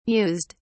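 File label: "mused", British English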